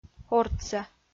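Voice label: "ортсӧ", Komi-Zyrian, female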